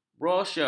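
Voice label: "brosur", Malay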